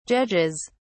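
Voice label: "judges", British English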